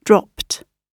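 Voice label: "dropped", British English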